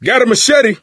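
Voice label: melee weapons, voice, male; Left 4 Dead 2; coach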